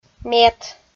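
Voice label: "мет", Komi, female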